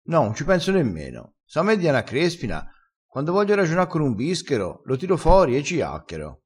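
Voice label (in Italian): "bischero", dialettale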